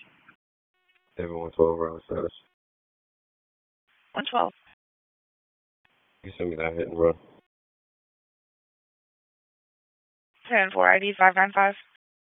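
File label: Police Southwest District Dispatch, p25; Raleigh; wake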